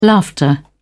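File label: "laughter", British English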